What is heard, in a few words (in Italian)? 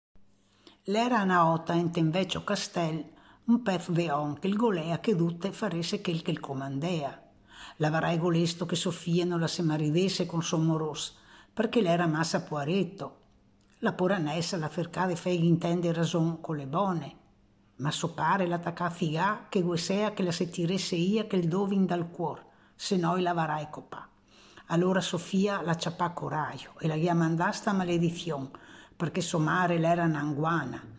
Calalzo, Calalzo di Cadore, F; Stimolo 4; Calalzo di Cadore (F, 1952)